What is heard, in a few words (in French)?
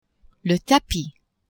"tapis", French; maison